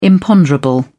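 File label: "imponderable", British English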